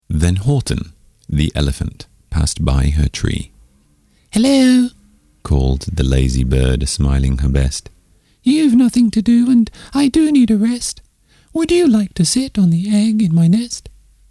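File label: Character story; narrator; UK-M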